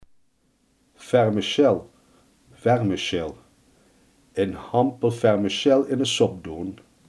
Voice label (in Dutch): "vermicelli", limburgse